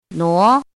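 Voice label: "nuó", Chinese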